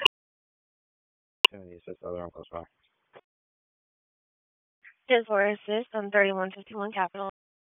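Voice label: Raleigh Police Northeast District Dispatch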